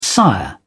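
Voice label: "sire", British English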